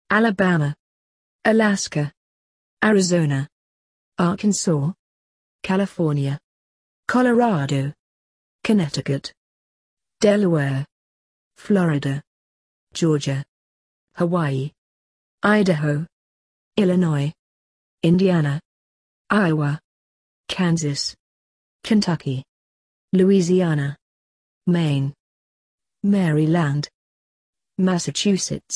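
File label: British; map states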